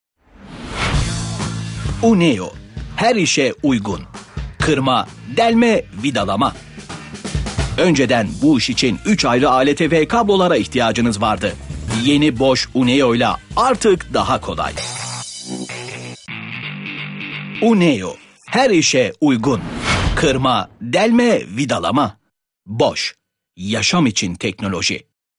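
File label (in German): point of sale türkisch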